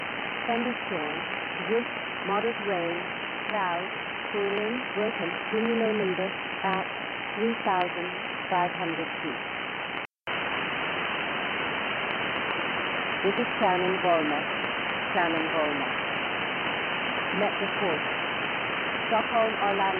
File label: Shannon Volmet USB; 13264khz